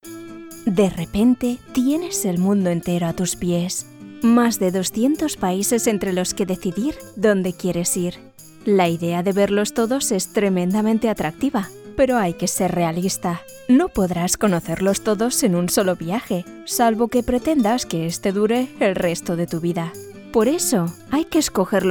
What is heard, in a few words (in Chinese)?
西班牙语 女 温情语调